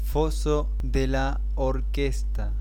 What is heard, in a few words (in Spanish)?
Orchestra pit, spanish